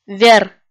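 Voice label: "вер", Komi, female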